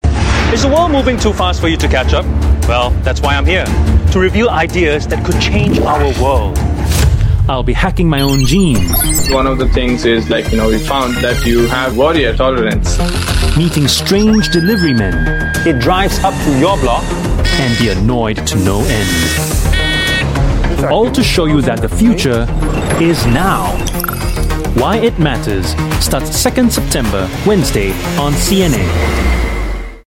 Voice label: Asian English Trailer; Why It Matters

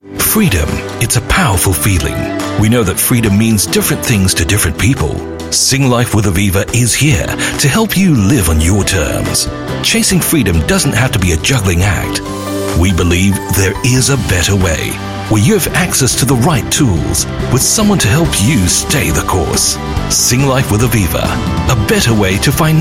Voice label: Asian English ANNOUNCER; Singlife

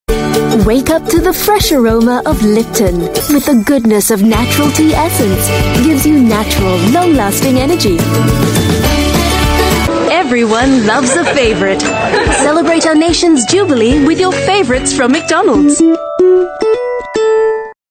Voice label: Asian English TVC; Litten

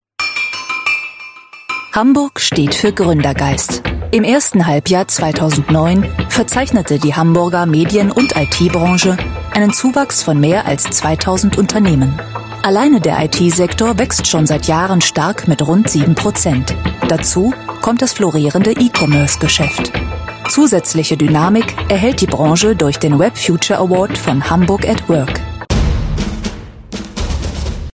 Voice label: German Corporate Video

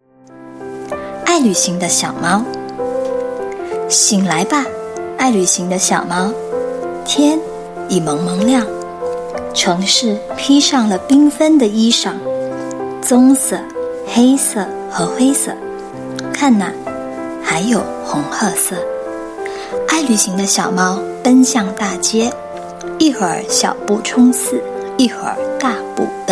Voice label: Mandarin StoryTelling, Mandarin China Female

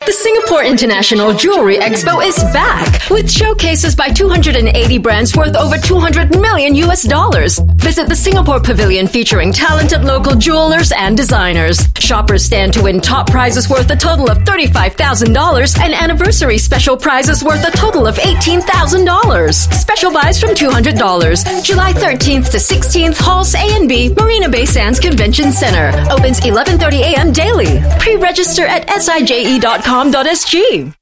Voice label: Asian English; SIJE

